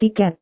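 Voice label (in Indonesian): "tiket", Indonesian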